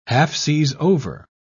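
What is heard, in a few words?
"half-seas-over", British English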